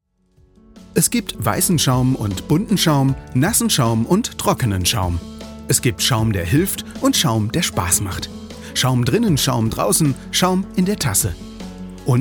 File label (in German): Produktfilm